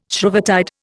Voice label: "Shrovetide", Indian

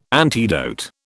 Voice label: "antidote", British

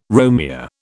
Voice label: "Romeo", British

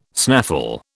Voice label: "snaffle", British

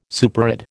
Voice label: "superadd", American

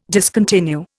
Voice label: "discontinue", Indian

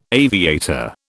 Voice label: "aviator", British

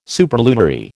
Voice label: "superlunary", American

